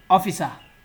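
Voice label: "officer", Nigerian Pidgin, male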